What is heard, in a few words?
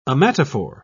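"ommatophore", English, British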